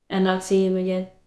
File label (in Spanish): Voice Acting es F0